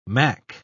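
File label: "mack", British English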